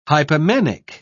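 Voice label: "hypomanic", British English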